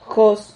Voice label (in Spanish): "jos", Silabas